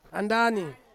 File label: "andani", Ansus; mango